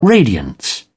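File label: "radiance", British English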